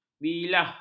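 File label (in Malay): "bilah", Malay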